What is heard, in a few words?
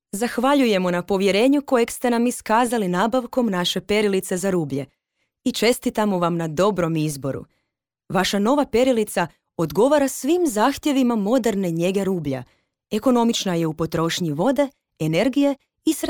female croatian voice over talent narrative